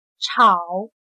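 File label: "吵" Chinese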